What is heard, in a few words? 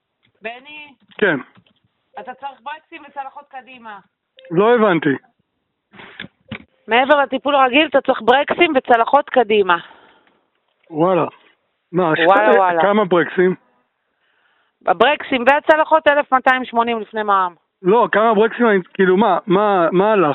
call recording